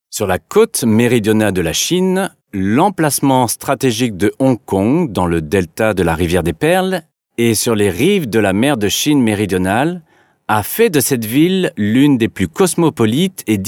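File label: French male corporate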